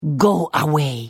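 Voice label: Hello, Khajiit female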